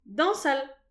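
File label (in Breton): "dansal", Breton; petit precis de prononciation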